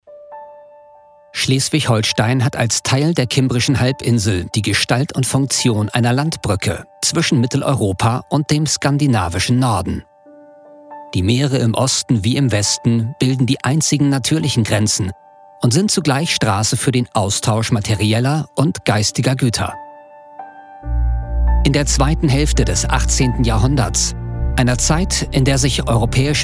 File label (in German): DOKU OFF; voice; DAeNEMARK